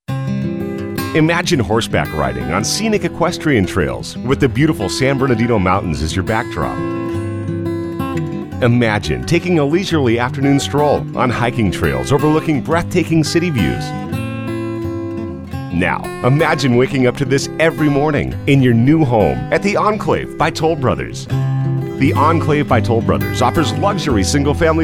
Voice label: narration